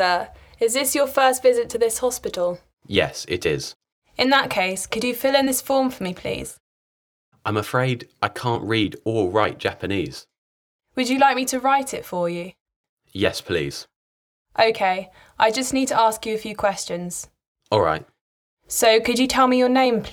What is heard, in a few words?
Conversation; Bedside Manner Beginner